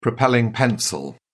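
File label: "propelling pencil", British English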